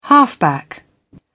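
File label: "halfback", British English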